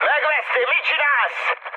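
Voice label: Hungarian player killed ally mood high, speech; War Thunder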